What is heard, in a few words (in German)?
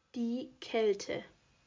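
"Kälte", German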